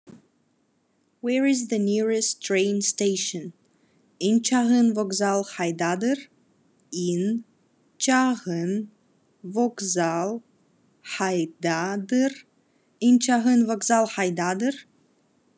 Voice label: "Where is the nearest train station?", Khakas

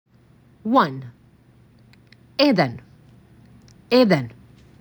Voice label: MAC 6, macedonian phrasebook

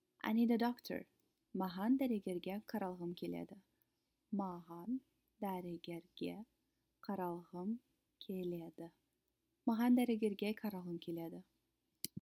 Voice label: I need a doctor, kazakh

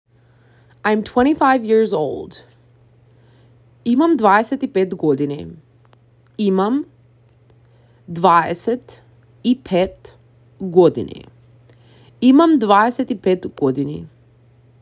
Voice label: I am 25 years old, macedonian; the talking macedonian phrasebook

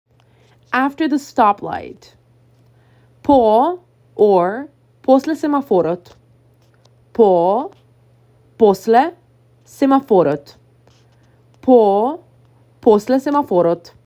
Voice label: After the stoplight, Macedonian; the talking macedonian phrasebook

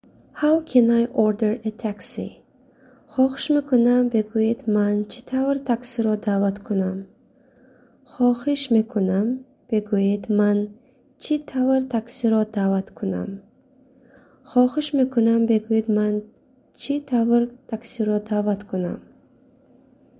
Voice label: How can I order a taxi, tajik

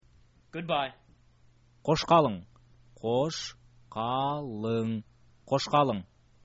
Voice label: good bye, kyrgyz

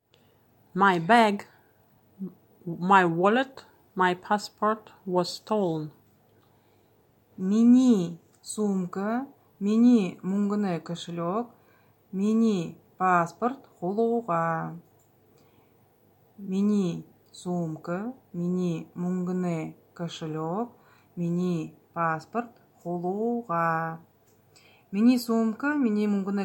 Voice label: My bag wallet passport was stolen, Buryat

